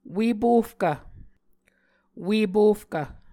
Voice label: "ue-pofkv", muscogee